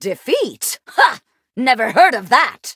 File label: loading, en